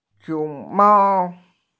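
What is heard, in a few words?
"xiong2mao1", female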